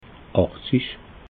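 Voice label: "achtzig", Alsatian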